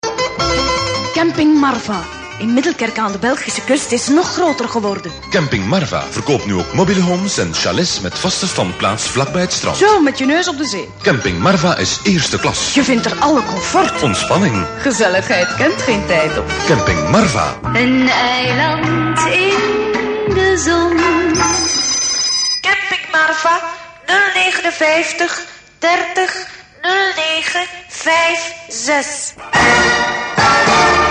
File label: commercial